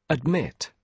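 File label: "admit", British English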